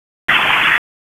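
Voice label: Police Southeast District Dispatch, P25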